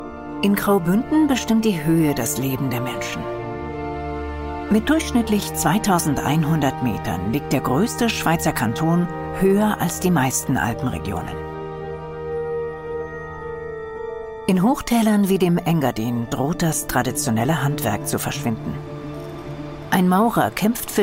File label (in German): doku natur; swr graubuenden